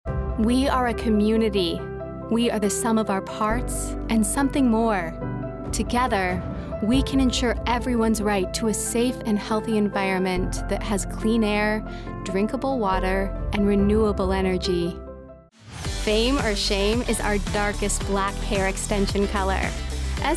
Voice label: eLearning; Demo